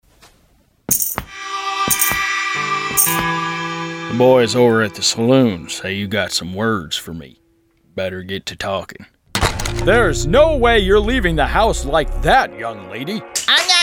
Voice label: animation voice; demo